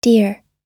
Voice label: "deer", pronunciation